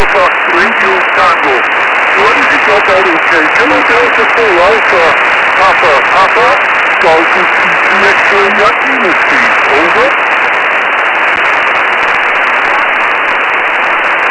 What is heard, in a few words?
QSO, ao40